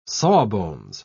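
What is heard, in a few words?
"sawbones", English, British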